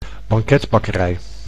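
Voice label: "banketbakkerij", Dutch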